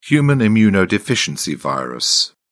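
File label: "human immunodeficiency virus", English, uk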